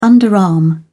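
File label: "underarm", British English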